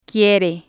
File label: "quire", Spanish